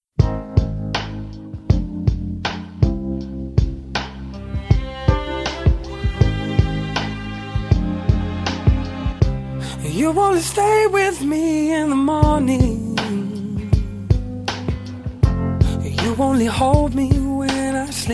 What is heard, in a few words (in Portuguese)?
Aniversário Genro Feminino